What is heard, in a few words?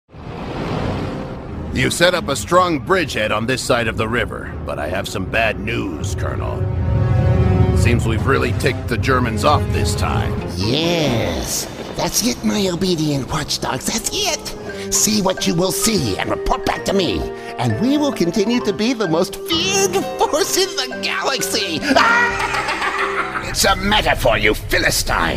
Animation